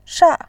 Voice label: "sha4", voice